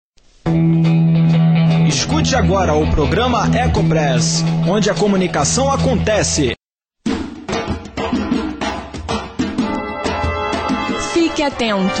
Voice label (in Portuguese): locutor